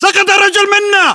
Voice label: reaction casualty generic, battle chatter, english